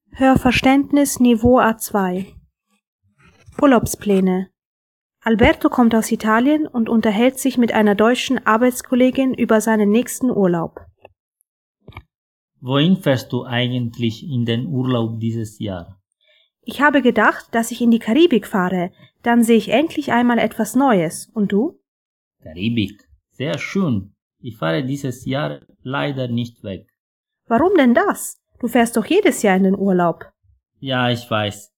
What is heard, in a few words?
dialog german level a2